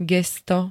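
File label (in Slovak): "gesto", Slovak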